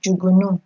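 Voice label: "jugunu", Nigerian Pidgin, female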